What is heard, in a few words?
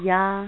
"Yes", es, Adult, Male